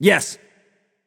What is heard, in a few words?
emote yes; smite; mercury